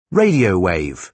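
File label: "radio wave", British English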